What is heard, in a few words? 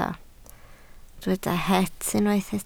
"het", Welsh